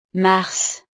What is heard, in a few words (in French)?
"mars", frances; los meses